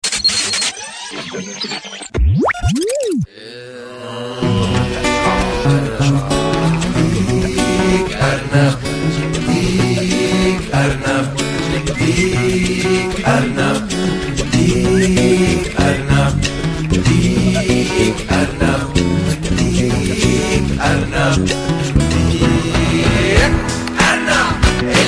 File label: Jingle; Mazaj Show; Ramadan El Deek